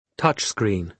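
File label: "touch screen", British English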